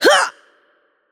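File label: Attack Heavy, Spanish; es ES; VO; bloom minigame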